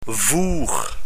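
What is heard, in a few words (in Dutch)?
"voer", Dutch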